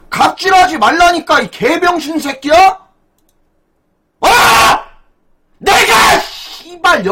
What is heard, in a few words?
scout jeers